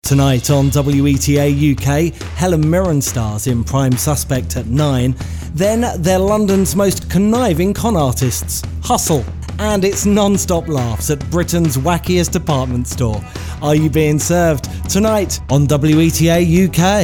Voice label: UK Billboards; WETA